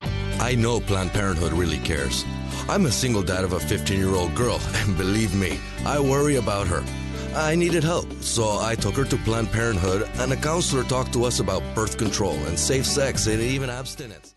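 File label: English Accent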